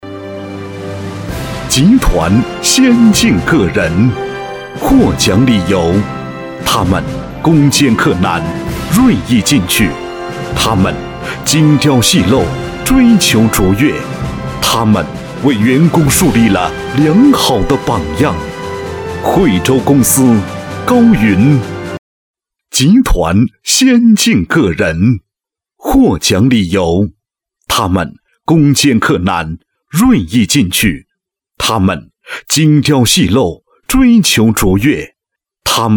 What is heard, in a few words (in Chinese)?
男 大会颁奖